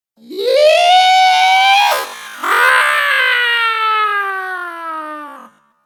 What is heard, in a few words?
yeehaw slow mo